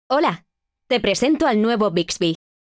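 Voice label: onboarding provision greeting, Spanish; Samsung Galaxy S10; Bixby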